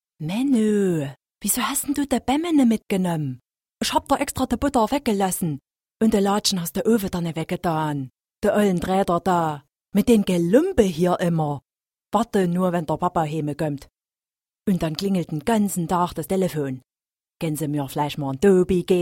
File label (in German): Dialekt Saechsisch